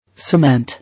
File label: "Cement", English